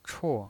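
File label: "chuo", Mandarin Chinese pinyin voice, fourth tone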